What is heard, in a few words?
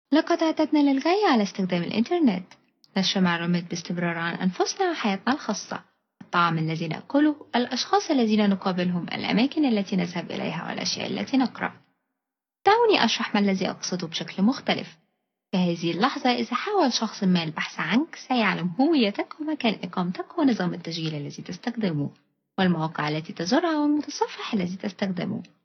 Arabic, voice overs; animation